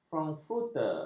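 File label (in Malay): "frankfurter", Malay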